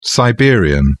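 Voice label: "siberian", British English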